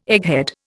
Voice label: "egghead", Indian